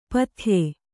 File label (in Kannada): "ಪತ್ಯ", Kannada